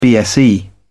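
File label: "bse", English, British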